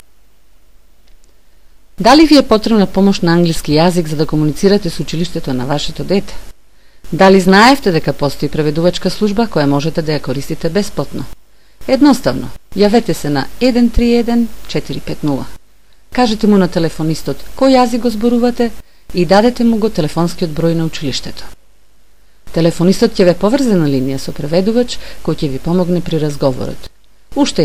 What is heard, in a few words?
macedonian; public schools; going to a public school